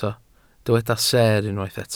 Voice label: "seer", Welsh

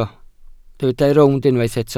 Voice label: "rownd", Welsh

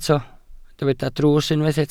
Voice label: "drws", Welsh

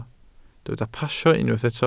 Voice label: "pasio", Welsh

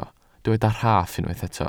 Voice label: "rhaff", Welsh

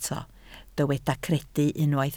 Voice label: "credu", Welsh

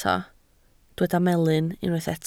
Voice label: "melyn", Welsh

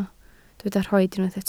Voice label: "rhoid", Welsh

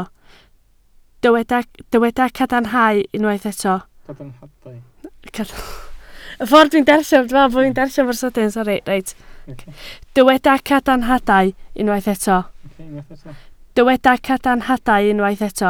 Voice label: "cadarnhadau", Welsh

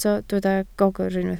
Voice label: "gogr", Welsh

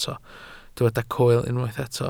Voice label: "coel", welsh

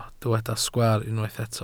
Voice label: "sgwaar", Welsh

